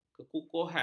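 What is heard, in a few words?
"kekukuhan", Malay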